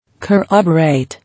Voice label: "Corroborate", English

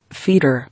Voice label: "Feeder", English

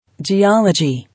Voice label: "Geology", English

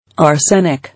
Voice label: "Arsenic", English

